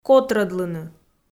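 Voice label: "котрӧдлыны", Komi, female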